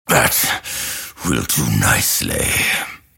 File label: lasthit pain; grimstroke